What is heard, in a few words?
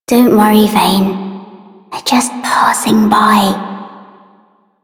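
Child Female; Warframe; Duviri; Sword Girl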